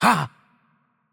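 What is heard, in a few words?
Turkish attack light voice; bloom minigame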